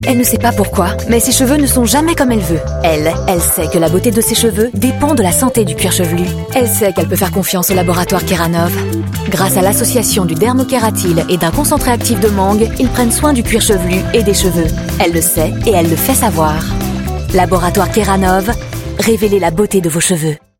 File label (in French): Pub TV; Keranove